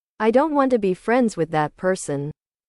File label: english